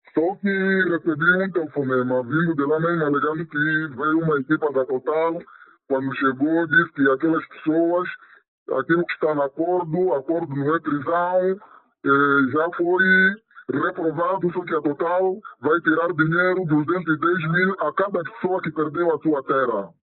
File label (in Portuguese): voz distorcida; TotalEnergies; Maganja